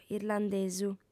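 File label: "irlandesu", female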